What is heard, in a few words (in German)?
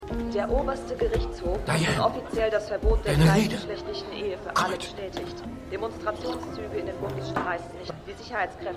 Nachrichtensprecherin; The Good Fight